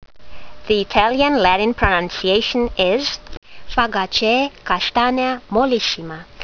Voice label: latin; Castanea mollissima